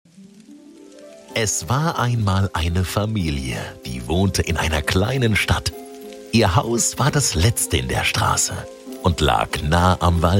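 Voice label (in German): Sprecher Hörbuch bekannte deutsche Synchronstimme Stimme; deutschland oesterreich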